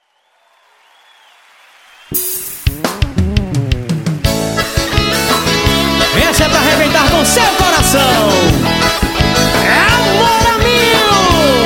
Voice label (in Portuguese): amigo locutor